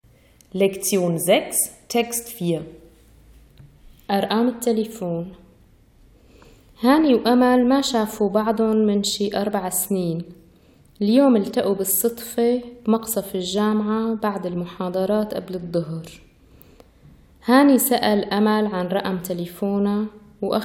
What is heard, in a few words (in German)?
telefonnummern, syrisch-arabischer dialekt; ʔarʔām әt-talifōn